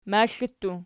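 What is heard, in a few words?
"maxli" plural, Archi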